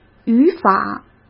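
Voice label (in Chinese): "语法", Mandarin Chinese; chinese-flashcards; hsk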